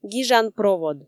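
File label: "гижан провод", Komi, female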